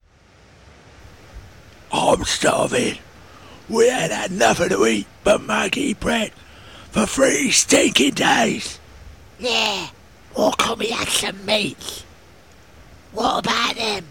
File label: Goblin Orc